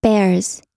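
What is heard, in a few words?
"bears", American English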